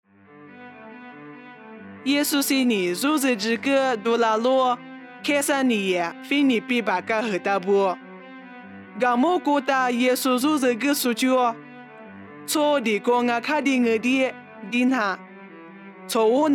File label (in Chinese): 凉山彝语; 以色列凯撒利亚腓立比; nuosu